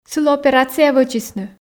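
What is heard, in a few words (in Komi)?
сылы операция вӧчисны, Komi, female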